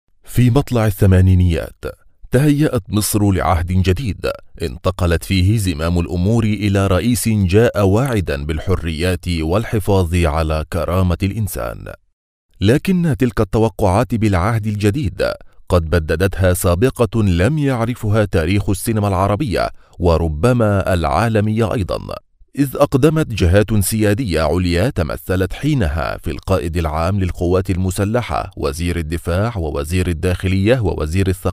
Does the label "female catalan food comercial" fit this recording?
No